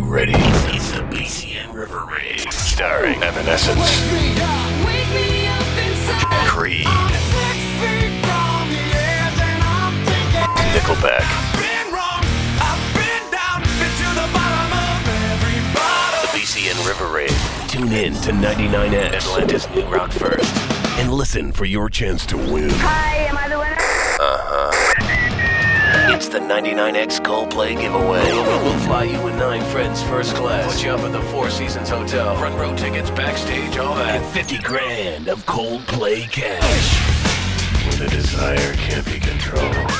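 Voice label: voice over alternative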